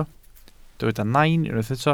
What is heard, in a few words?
"nain", Welsh